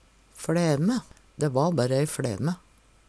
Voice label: "flaeme", Numedalsmål; Dæ va berre ei fLæme.; Substantiv hokjønn

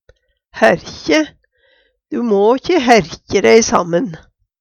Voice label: herkje, Numedalsmål; Du må'kje herkje ræ i sammen.

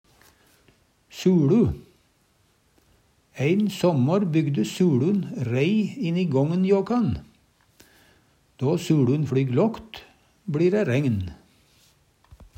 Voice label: suLu, Numedalsmål

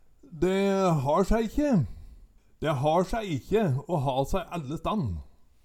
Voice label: "de har saei ikkje", Numedalsmål

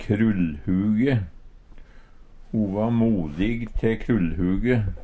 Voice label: krullhuge, Numedalsmål

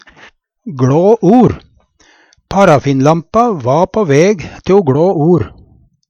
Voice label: gLa or, Numedalsmål

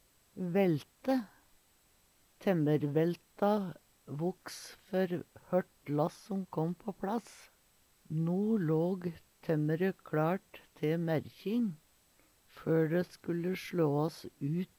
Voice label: "velte", Numedalsmål

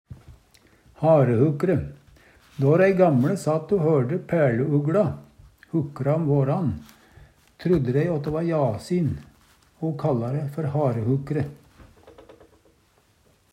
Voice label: harehukre, Numedalsmål

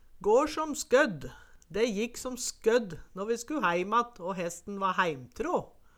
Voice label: "går som skødd", Numedalsmål; De jikk som skødd nå vi sku hæimatt å hesten va hæimtrå

